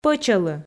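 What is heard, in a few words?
"пӧчӧлы" Komi, female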